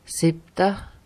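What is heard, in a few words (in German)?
"sìebta" Alsatian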